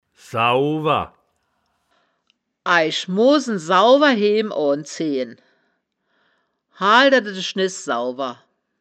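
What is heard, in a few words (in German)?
"sauwer", wittlicher woerterbuch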